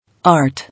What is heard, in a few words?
"Art", English